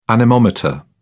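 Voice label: "anemometer", British English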